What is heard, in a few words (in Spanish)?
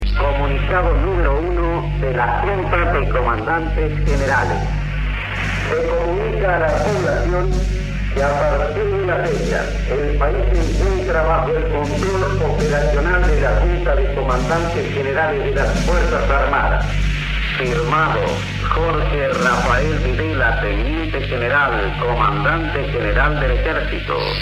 comunicado